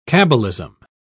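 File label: "cabbalism", British English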